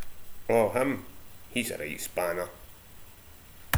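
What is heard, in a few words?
"spanner", slang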